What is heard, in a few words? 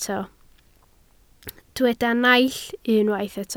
"naill", Welsh